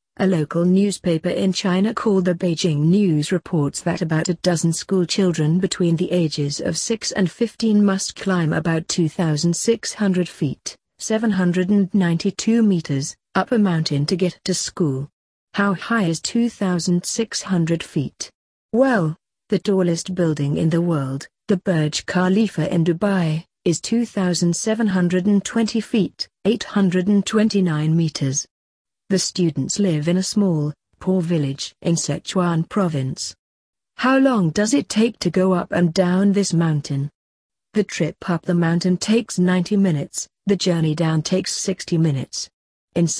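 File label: British; students climb mountain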